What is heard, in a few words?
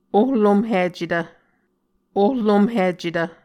"ohlomhicetv", Muscogee